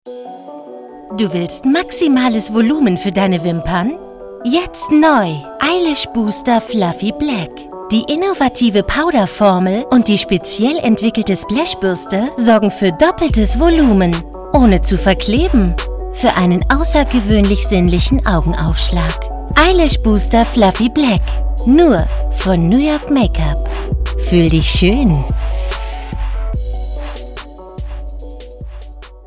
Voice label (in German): Werbung MakeUp